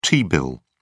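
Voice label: "t-bill", British English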